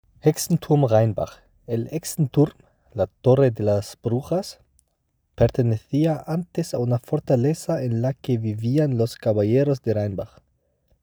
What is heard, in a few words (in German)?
Spanisch; Hexenturm Rheinbach